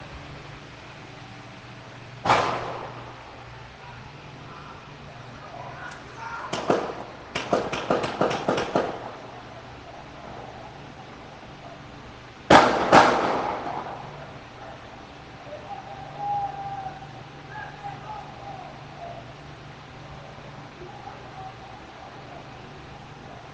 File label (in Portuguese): whatsapp audio